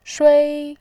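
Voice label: "shui", Mandarin Chinese, first tone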